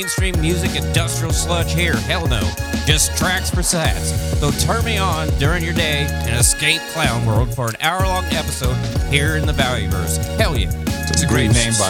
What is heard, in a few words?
Upbeats Promo